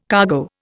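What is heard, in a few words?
"cargo", Indian English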